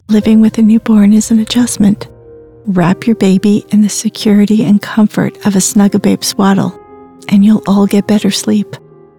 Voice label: Caring Warm; Snugababe